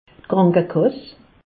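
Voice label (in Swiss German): "krankekass", Alsace, Haut Rhin; medecine; assurance maladie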